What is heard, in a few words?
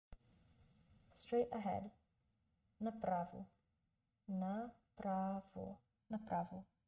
BGN Straight ahead, Bulgarian; the talking bulgarian phrasebook